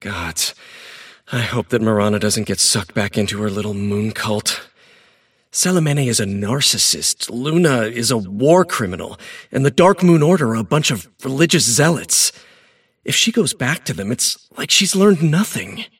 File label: monologue; davion; dota